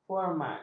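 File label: "format", Malay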